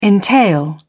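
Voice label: "entail", British English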